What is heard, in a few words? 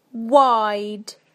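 "wide", British English; PictureDictionary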